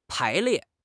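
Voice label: "pai2 lie4", Mandarin Chinese; HSK; HSK4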